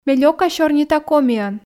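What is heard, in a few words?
Komi, female; ме лёка сёрнита комиӧн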